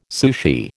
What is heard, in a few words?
"sushi", American